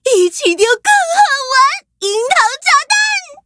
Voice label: loading, zh-hant; Cookie Run